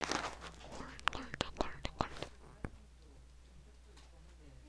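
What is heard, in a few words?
"cartoon", spoken word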